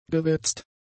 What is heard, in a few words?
"gewetzt", German; adjectives